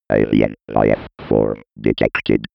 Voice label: male status report